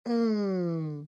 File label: happy; shredder